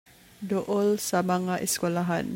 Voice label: Cebuano